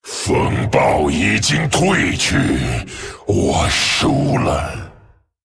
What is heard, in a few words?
Male Dragon Concede; ▶风暴已经退去。我输了。 ▶The storm has passed. I give up.; zh; 投降; 风暴巨龙迦拉克隆